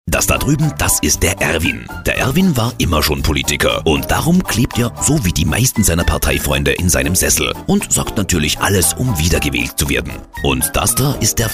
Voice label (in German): Radiospot; Niederoesterreich